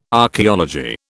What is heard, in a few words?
"Archaeology", British